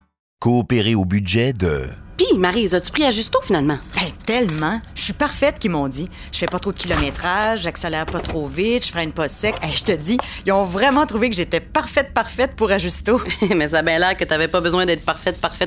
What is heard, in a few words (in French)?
Publicité; Ajusto